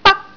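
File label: "bak1", Cantonese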